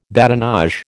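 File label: "badinage", American English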